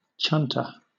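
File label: "chunter", English, British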